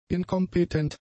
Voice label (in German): "inkompetent", German; adjectives